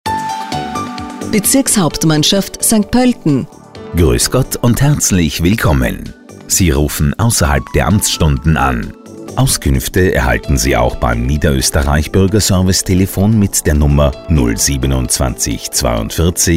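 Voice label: german interactive voice response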